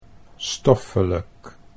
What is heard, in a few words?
"stoffelijk", Dutch